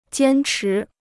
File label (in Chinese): "坚持", Chinese